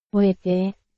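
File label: Burmese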